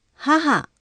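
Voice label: "haha", Japanese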